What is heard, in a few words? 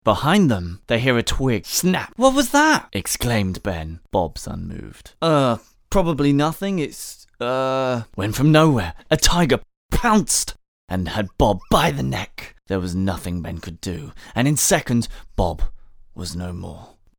Story Narrator VO